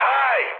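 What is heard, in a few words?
Turkish trick, mood high, speech; War Thunder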